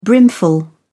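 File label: "brimful", British English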